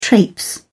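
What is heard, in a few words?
"traipse", British English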